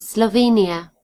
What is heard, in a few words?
"slovenia", en GB; gcompris